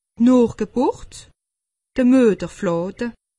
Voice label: "nochgeburt", alsace; medecine

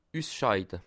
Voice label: "usscheide", alsace

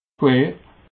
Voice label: "quer", alsace

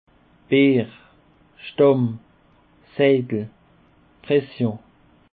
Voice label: "beer", Alsace

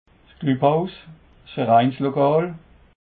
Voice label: "glubhouse", alsace

